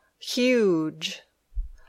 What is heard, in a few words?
"huge", English